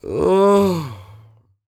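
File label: female spoken, R&B Dance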